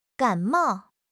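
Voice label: "gan3 mao4", Mandarin Chinese; HSK3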